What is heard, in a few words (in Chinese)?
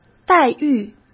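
"待遇", Mandarin; chinese-flashcards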